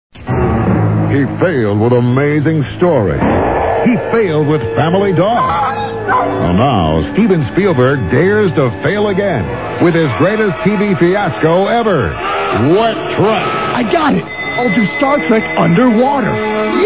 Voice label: Commercials; Freekin Weird Audio